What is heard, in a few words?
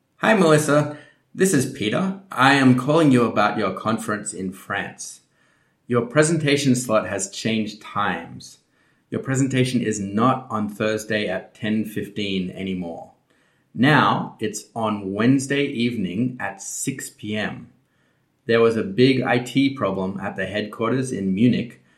voice message; business english; futures; present simple and present continuous